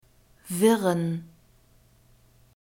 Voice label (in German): "wirren", German